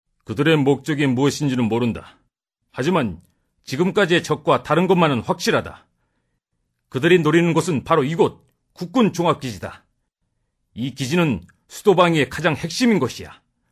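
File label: Korean male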